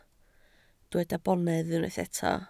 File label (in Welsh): "bonedd", Welsh